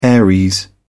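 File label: "aries", British English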